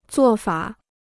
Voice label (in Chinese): "做法", Chinese